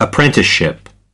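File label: "apprenticeship", English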